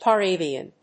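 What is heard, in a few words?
"par avion", English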